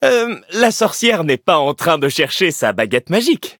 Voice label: loading, fr; Cookie Run

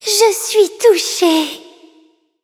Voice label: levelup, fr

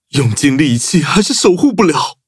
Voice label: defeat, zh-hant; Cookie Run

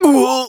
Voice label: hit, de; Cookie Run

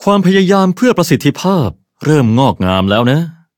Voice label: level up, th; cookie run

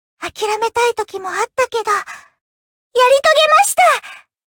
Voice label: upgrade, ja; cookie run

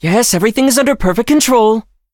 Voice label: touch, en; cookierun

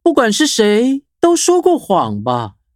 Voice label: levelup, zh-hant; cookie run

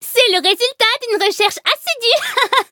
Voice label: upgrade, fr; Cookie Run

